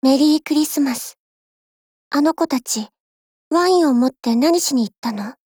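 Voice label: christmas, Japanese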